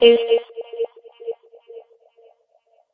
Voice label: doo delay; sweeps and fx; Ze Franks Pain Pack